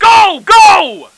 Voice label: charge, Soldier; Opposing Forces; FSoldier

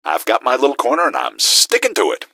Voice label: little corner, gasmask; scmod

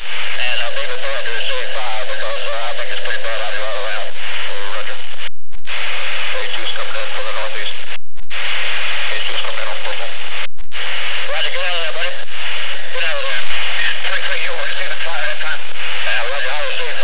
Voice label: radio chatter

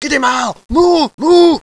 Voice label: babble move move

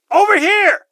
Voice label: over here, gasmask; scmod

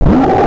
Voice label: pain; HL2 combine

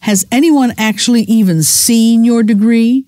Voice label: seen your degree, scientist female

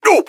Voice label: pain, npcs gasmask; scmod

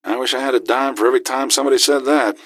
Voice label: answer, gasmask; scmod

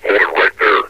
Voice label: hold it right there, metrocop zombie; scmod

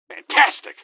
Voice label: fantastic, gasmask; scmod

